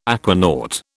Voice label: "aquanaut", British

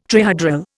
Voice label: "trihedral", Indian

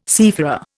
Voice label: "seafarer", Indian

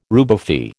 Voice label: "rubefy", American

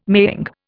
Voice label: "maying", Indian

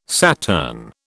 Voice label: "Saturn", British

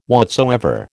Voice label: "whatsoever", American

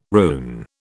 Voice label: "rune", British

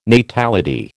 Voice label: "natality", American

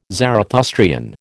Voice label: "Zarathustrian", American

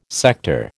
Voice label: "sector", American

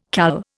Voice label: "CAL", Indian

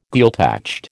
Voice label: "Gaeltacht", American

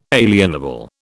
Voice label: "alienable", British

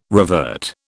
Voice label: "revert", British

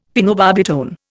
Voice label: "phenobarbitone", Indian; Dictionary

